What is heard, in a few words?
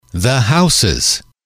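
the houses, English, male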